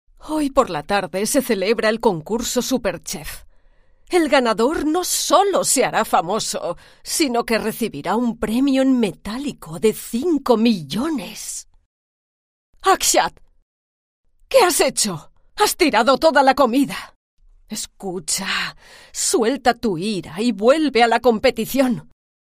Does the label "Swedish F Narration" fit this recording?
No